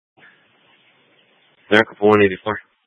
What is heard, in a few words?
Phx PD Fire Dispatch; RWC